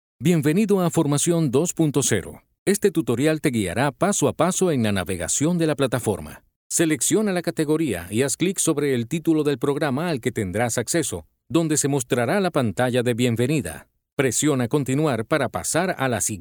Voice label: Elearning; 2puntocero